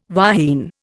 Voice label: "wahine", Indian